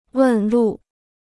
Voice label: "问路", Chinese

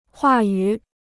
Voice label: "话语", Chinese

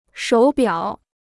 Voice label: "手表", Chinese

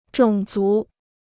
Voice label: "种族", Chinese

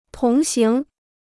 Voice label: "同行", Chinese

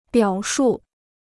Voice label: "表述", Chinese

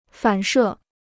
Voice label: "反射", Chinese

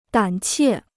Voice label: "胆怯", Chinese

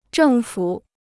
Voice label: "政府", Chinese

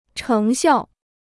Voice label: "成效", Chinese

